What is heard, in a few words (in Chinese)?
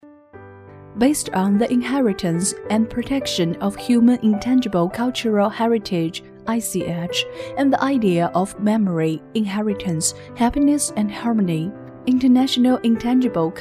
英女 英语博览会